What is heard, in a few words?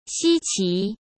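"稀奇", Mandarin Chinese; hsk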